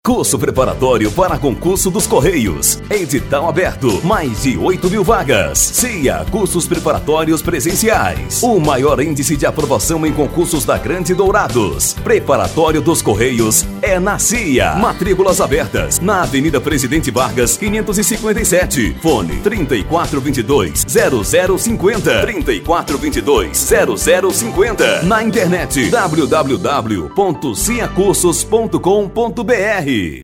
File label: spot